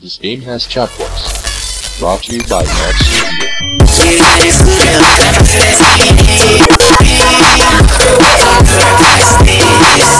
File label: private chat voice